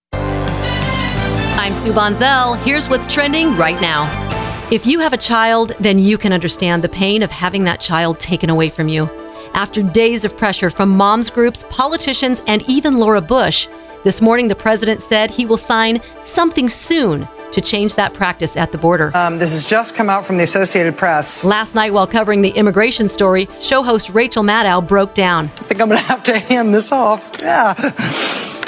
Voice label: news